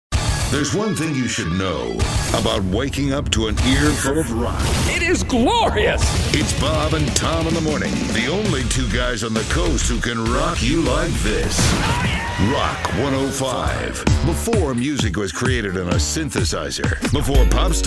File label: radio, radio imaging